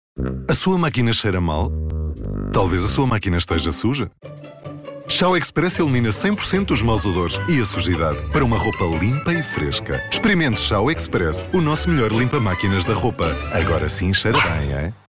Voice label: male portuguese comercial; ideasonora